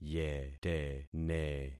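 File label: "yetene", concatenated syllables; Affixing experiment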